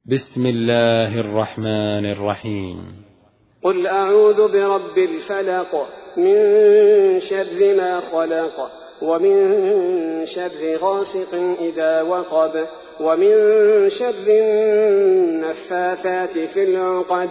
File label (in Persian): ترتیل سوره فلق; الثبیتی